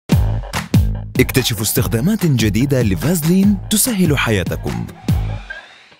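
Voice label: commercial, Arabic, male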